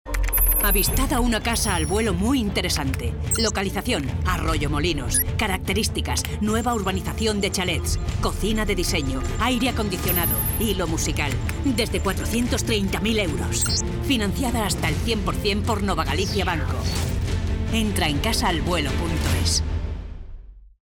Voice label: spanish f commercial; voice demos